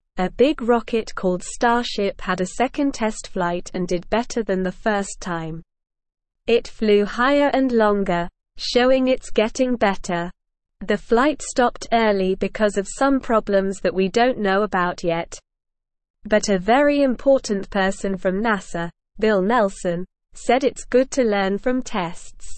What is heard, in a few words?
English Newsroom Lower Intermediate SLOW Reading; Starship Rocket Flies High Still Learning